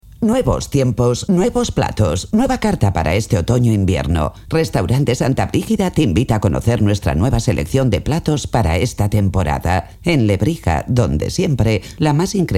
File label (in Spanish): locutora spain